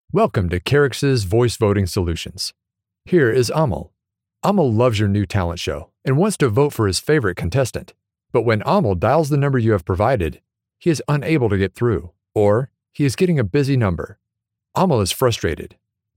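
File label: explainer english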